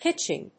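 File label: "pitching", English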